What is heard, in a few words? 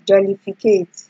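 "jollificate", Nigerian Pidgin, female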